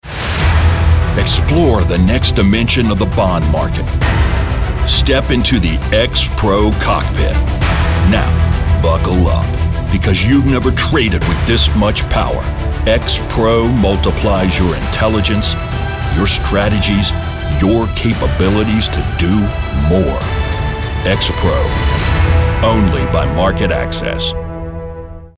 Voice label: full of bravado and confidence, carrying a powerful and booming sound; XPro